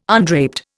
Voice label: "undraped", Indian